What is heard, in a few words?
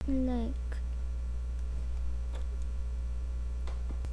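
"lick", spoken word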